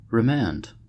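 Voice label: "remand", US English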